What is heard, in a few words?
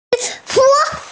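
"sweet potato", hearing impaired; zi3shu3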